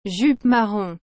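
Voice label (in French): "jupe marron", French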